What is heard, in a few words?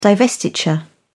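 "divestiture", British English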